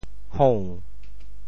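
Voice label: "hou~2", Teochew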